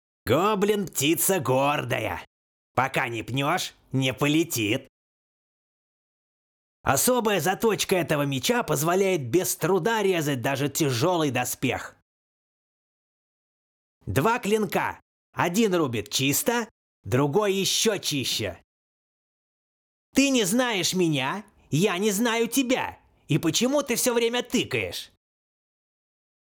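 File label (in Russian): для игр, Дикторы пародисты; parodist; comic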